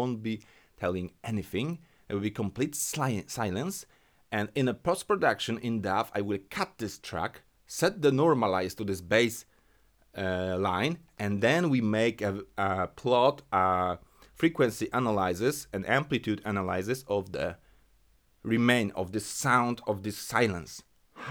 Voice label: sample rode mic